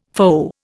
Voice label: "foe", Indian

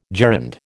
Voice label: "gerund", American

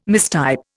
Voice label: "mistype", Indian

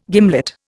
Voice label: "gimlet", Indian

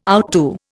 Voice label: "outdo", Indian

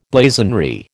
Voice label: "blazonry", American

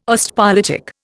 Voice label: "Ostpolitik", Indian

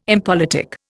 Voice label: "impolitic", Indian

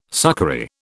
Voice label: "succory", British

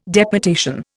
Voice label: "deputation", Indian

